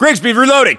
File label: US inform reloading, battlechatter, english